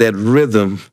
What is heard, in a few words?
LoFi Phrases, LoFi Vocals; Vengeance Samples